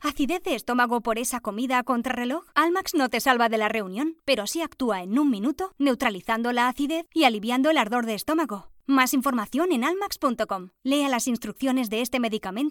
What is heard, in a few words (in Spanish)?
COMERCIAL